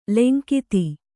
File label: "ಲಂಘಿತ", Kannada